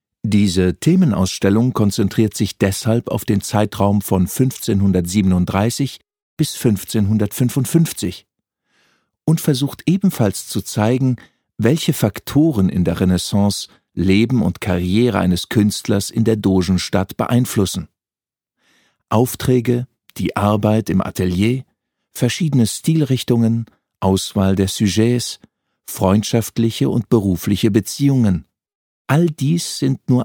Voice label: voices audioguide de; tintoretto